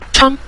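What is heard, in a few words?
"chang", Mandarin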